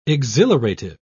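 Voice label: "exhilarative", British English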